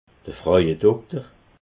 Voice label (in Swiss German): "frauedokter", alsace